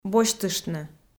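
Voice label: "босьтыштны", Komi, female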